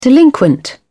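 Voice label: "delinquent", British English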